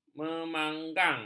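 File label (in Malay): "memanggang", Malay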